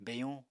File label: "beyuñ", Apatani